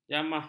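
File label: "jamah", Malay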